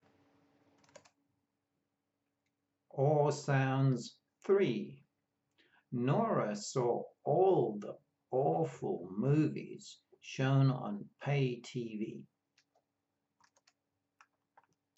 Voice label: Or sounds, pronunciation of australian english